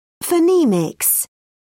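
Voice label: "phonemics", British English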